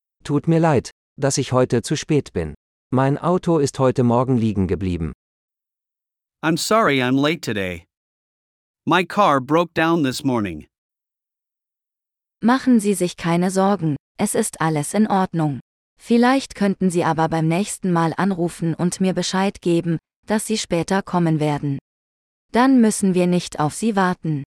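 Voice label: Apologizing - Dialogue (with translations), German; de-en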